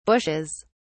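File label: "bushes", British English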